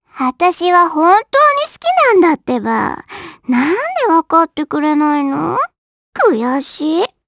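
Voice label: vox dia Japanese; Pansirone; kingsraid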